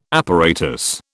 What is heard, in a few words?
"apparatus", British